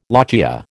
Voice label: "lochia", American